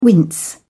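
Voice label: "wince", British English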